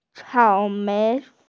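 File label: "cao mei", Mandarin Chinese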